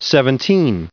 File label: "seventeen", en-us recite